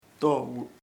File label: all, chamacoco; todos